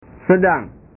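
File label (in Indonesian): "sedang", Indonesian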